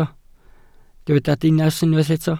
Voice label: "dinas", welsh